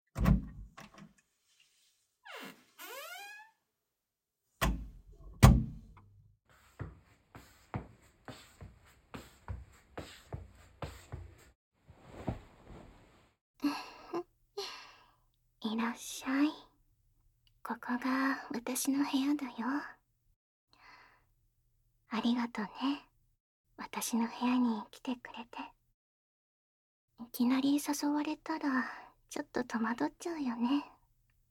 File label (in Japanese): 頭をなでなでされながら全肯定囁き耳舐め, ASMR